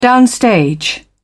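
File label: "downstage", British English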